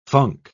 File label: "funk", pronounce